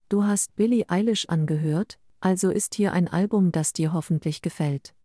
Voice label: sample neutral; Alexa speaking styles